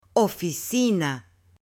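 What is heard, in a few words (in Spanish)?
"oficina", Spanish, female; LUGARES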